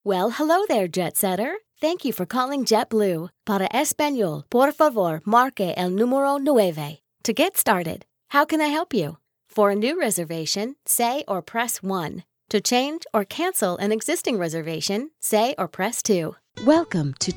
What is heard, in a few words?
Telephony